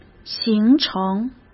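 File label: "形成", Mandarin Chinese; chinese-flashcards; hsk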